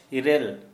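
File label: eight, Santali, male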